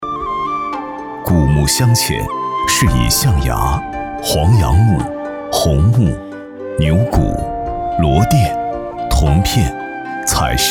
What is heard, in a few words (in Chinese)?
男 纪录片 非遗传承